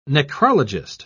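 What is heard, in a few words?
"necrologist", British English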